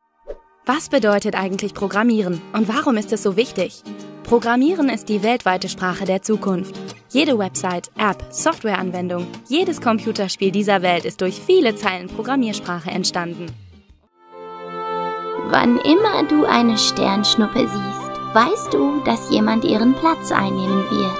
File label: German